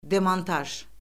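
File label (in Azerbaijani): "demontaj", Azerbaijani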